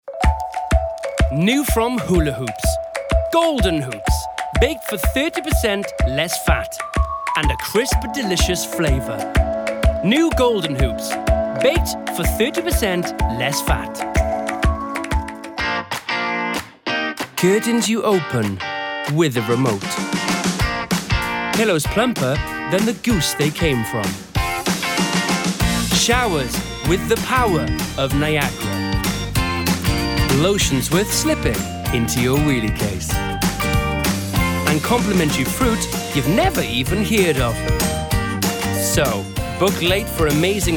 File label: Commercials